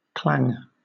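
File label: "clang", English, British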